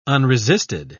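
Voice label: "unresisted", English, British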